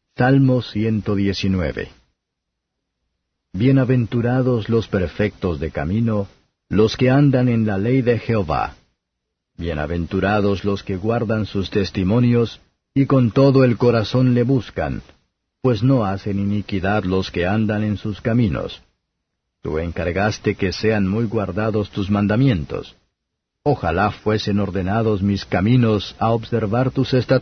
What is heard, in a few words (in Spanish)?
salmos spanish; 119; aleph